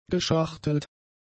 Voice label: "geschachtelt", German; adjectives

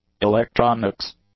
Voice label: "electronics", English; nouns

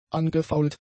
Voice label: "angefault", German; adjectives

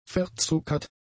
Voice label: "verzuckert", German; adjectives

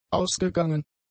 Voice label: "ausgegangen", German; adjectives

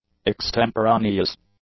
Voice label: "extemporaneous", english; adjectives

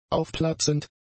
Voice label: "aufplatzend", German; adjectives

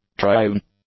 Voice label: "triune", English; adjectives

